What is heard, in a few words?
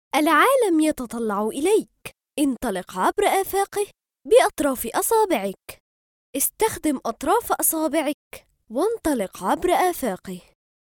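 Arabic, Female Child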